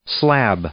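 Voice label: "slab", English, male; noun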